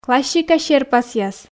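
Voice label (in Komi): "классика серпасъяс", Komi, female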